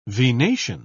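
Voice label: "venation", British English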